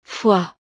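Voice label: "fois", French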